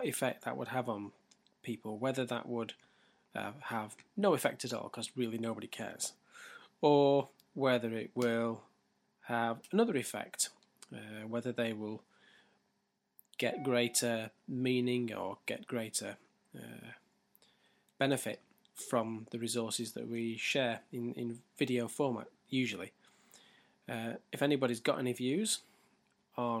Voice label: pro vs man on street voice over